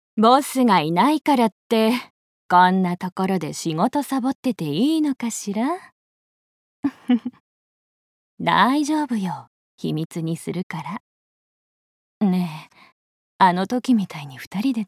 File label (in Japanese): 艶あり先輩OL